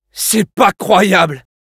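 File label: Scout jeers, French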